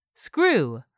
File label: "SCREW", english; 3000 words